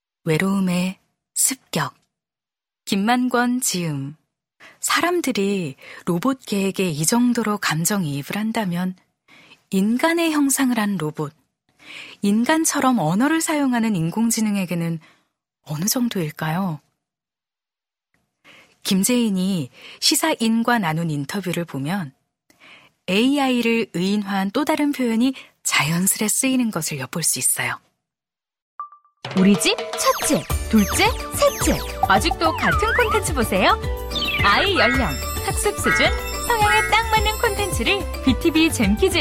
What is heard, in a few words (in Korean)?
여성 (Female); 광고; 나레이션; ARS